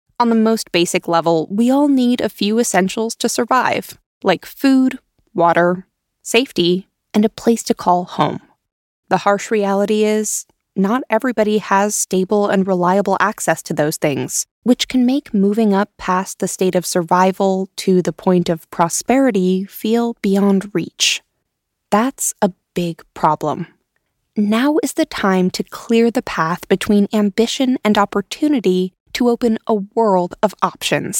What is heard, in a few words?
psa